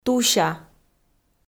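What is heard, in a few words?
"туся", Komi, female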